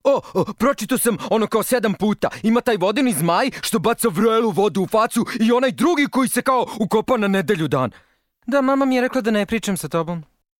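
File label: Serbian male character; voice demos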